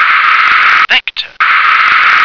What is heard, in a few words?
"vector", English